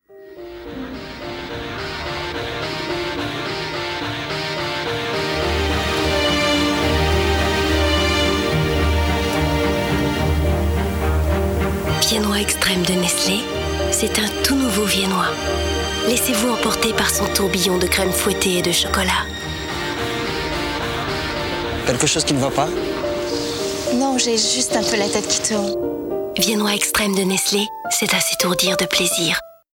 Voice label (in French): Viennois